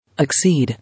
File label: "Exceed", English